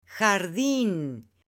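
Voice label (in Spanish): "jardin" Spanish, female; LUGARES; AppLenguaje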